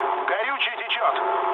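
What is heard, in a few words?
tank damaged, mood low, Russian; War Thunder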